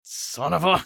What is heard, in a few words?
battle cry; eft; usec